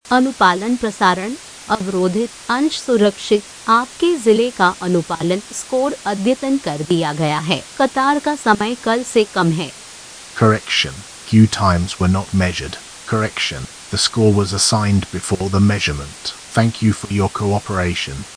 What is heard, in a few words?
whisper fragment